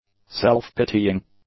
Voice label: "self-pitying", English; adjectives

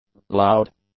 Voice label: "loud", English; adjectives

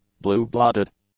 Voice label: "blue-blooded", English; adjectives

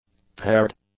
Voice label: "paired", English; adjectives

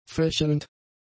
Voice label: "fächelnd", German; adjectives

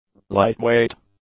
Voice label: "lightweight", english; adjectives

